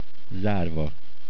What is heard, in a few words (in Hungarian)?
closed, hungarian